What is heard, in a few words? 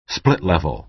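"split-level", American English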